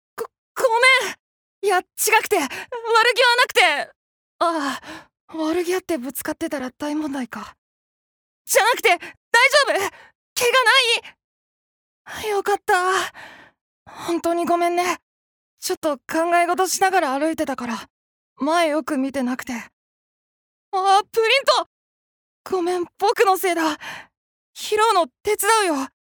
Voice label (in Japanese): アニメ